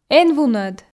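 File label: эн вунӧд, Komi, female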